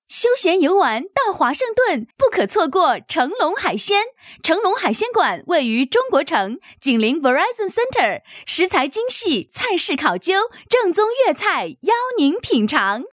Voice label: 女, 广告; 成龙海鲜

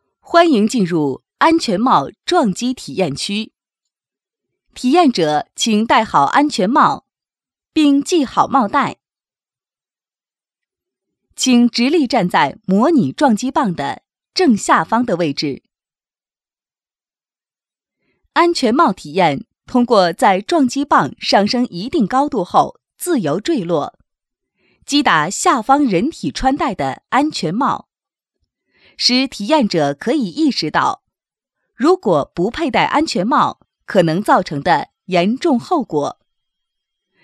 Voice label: 女, 专题, 安全帽撞击试验, 机械的旁白风格

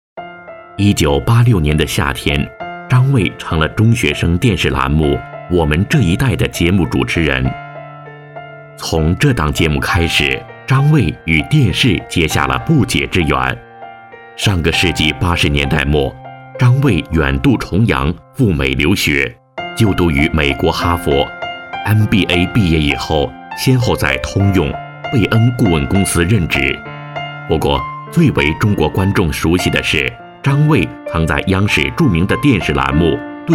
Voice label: 人物介绍 舒缓深沉

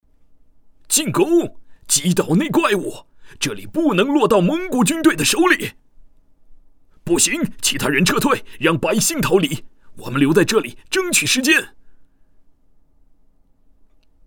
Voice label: 游戏人物旁白, 游戏角色类; 三国遗事

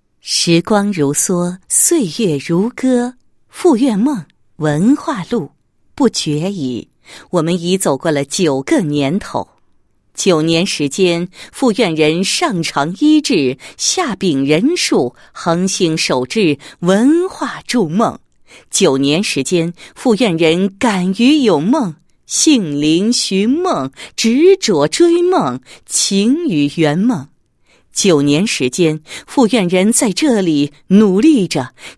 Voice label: 女-医院-医院第九届文化节, 配音案例

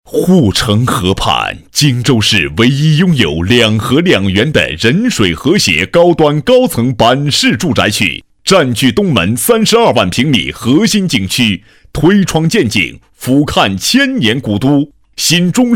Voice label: 男7 地产广告 (带点抒情), 国语男声配音; 荆州; 全能型

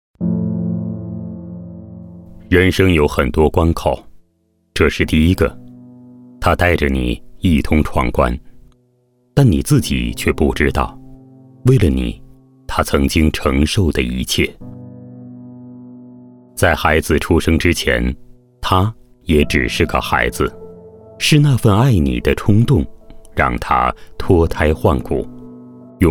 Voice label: 男, 晚会多媒体大屏旁白; 母亲

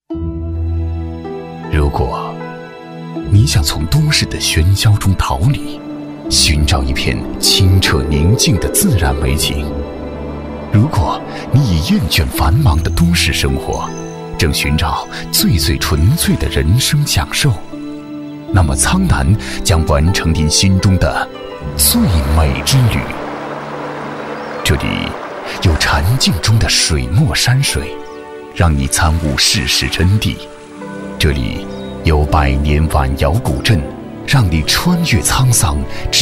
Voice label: 男, 宣传配音稿; 苍南

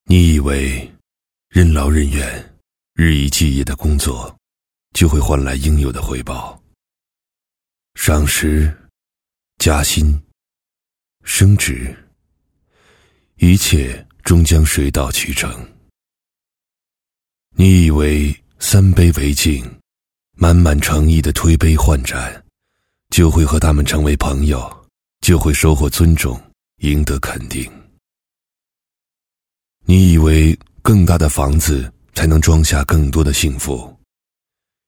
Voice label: 男 宣传片; 北辰置业

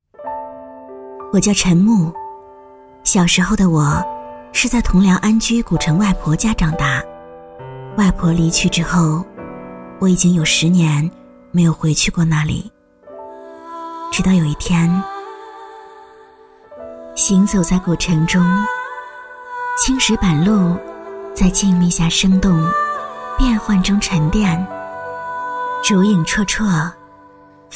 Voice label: 女 叙述 温情; 铜梁安居; 国语女声配音

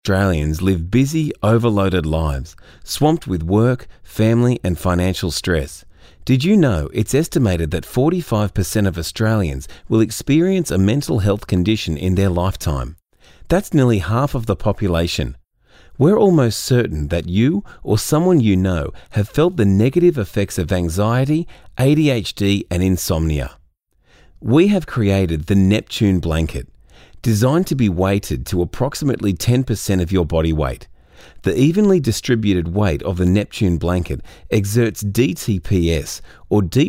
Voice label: 外籍英男, 自然叙述

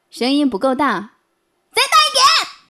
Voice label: 角色模仿 疯老师, 国语女声配音

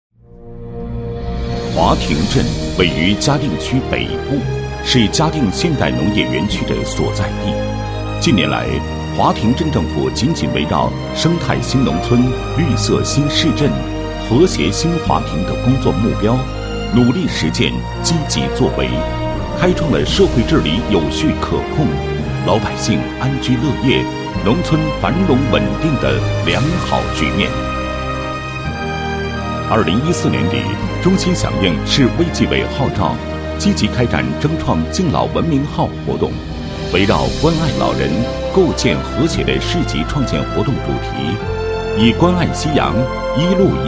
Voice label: 男, 医院; 华亭镇社区卫生服务中心关爱老人

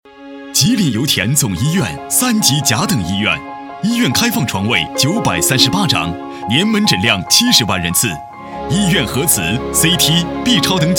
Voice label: 广告 医院, 国语男声配音; 吉林油田总医院

